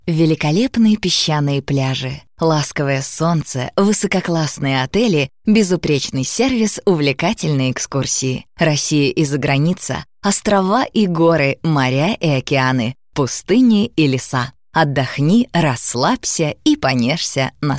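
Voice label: F, Russian, female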